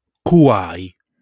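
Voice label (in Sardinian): "Cuai", Sardinian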